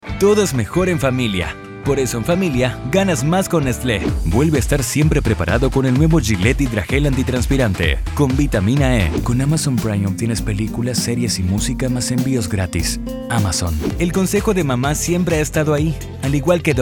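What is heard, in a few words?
comercial, Argentine Spanish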